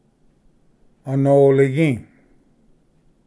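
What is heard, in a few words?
"anoligi", Cherokee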